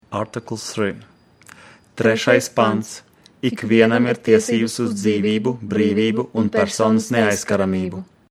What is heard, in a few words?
Latvian duo